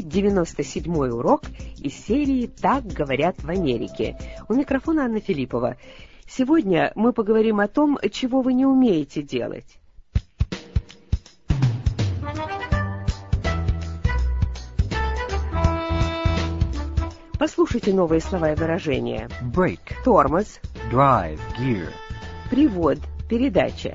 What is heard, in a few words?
russian english